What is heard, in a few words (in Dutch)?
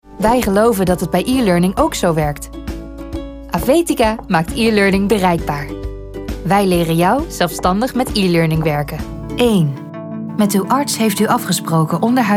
Corporate Informative NL